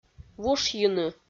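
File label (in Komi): "вушйыны", Komi-Zyrian, female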